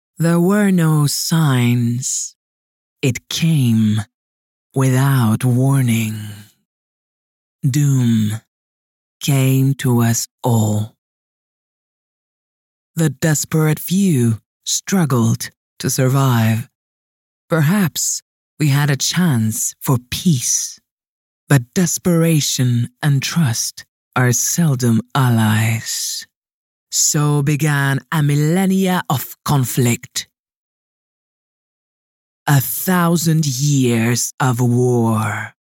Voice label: European voiceover character gaming